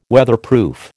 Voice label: "Weatherproof", American